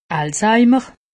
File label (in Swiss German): "alzheimer", alsace; medecine